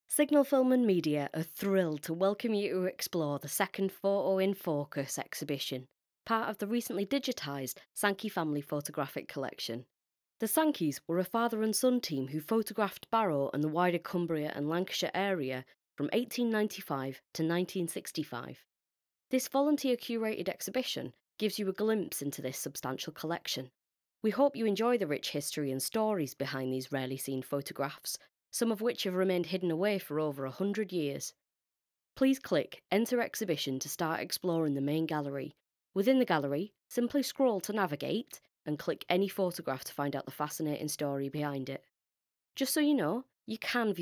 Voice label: voiceover; photo in focus